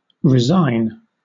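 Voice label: "resign", British English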